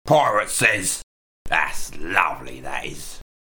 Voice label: Pirate Says Thats Lovely